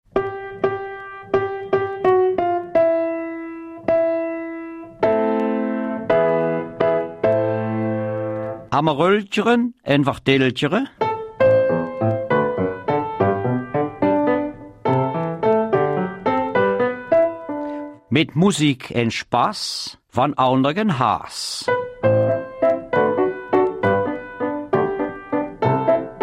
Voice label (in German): Mundart; Zukunft der Heidbergkapelle